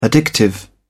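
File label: "addictive", English, British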